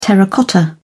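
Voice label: "terracotta", English, British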